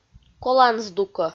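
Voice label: "колан здукӧ" Komi, female; audio dict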